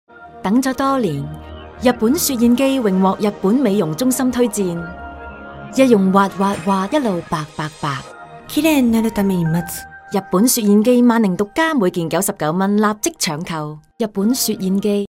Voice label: TVC